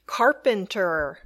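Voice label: "carpenter", English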